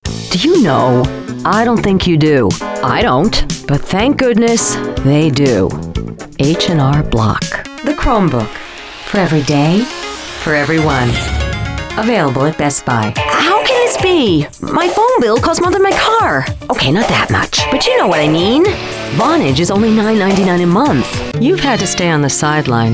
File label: Commercial